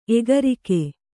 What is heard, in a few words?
"ಎಗರಿಕೆ", Kannada